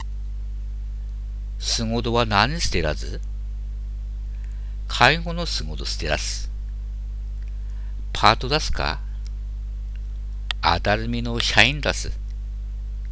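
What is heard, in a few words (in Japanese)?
ハナメと介護員, 秋田弁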